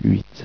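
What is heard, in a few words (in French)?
"huit", French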